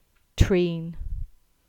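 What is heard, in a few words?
"Treen", English; place names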